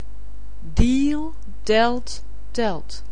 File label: "deal", anglais